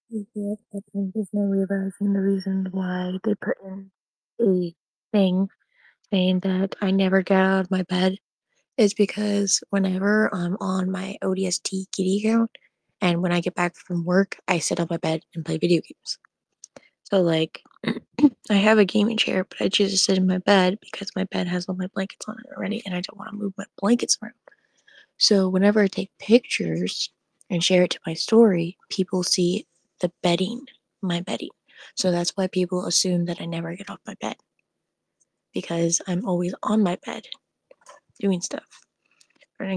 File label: voice message; Discord; Neko Haven; vent